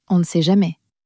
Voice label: "on ne sait jamais", French